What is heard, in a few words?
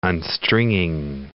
"unstringing", English